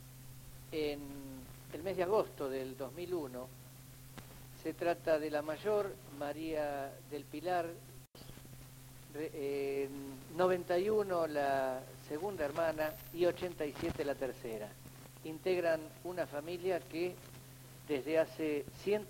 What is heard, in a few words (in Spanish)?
Reportaje